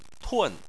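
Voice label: "tun", Chinese, fourth tone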